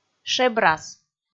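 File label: "шебрас", Komi, female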